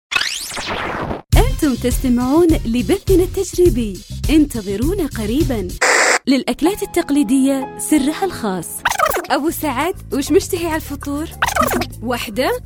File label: gulf saudi arabia riyadh female